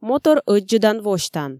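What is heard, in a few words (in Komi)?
мотор ӧддзӧдан восьтан, Komi, female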